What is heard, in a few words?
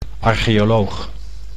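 "archeoloog", Dutch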